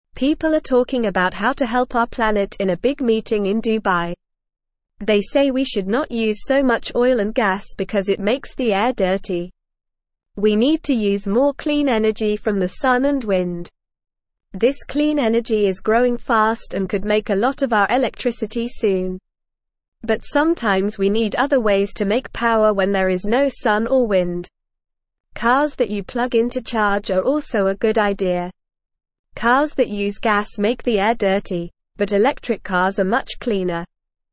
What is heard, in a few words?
English Beginner NORMAL Reading; Ways to Help Our Planet and Clean the Air; English Newsroom